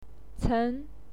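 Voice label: "cen2", Mandarin Chinese pinyin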